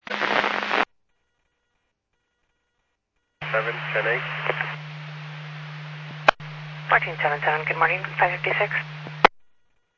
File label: Medevac, scanner; AirEvac PhxT